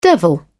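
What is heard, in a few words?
"devil", British English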